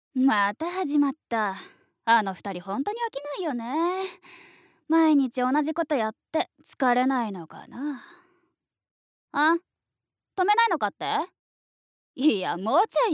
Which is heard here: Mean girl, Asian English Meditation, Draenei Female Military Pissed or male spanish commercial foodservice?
Mean girl